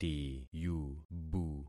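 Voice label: "tiyubu", concatenated syllables; Affixing experiment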